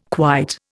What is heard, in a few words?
"quite", Indian